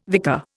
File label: "vicar", Indian